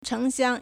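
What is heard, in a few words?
"chéngxiāng", Chinese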